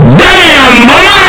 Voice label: damn hyper distorted